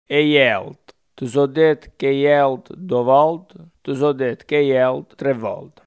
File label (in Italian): alta, coratino